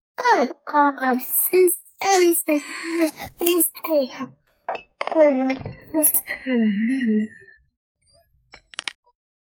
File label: annoyed anime girl, japanese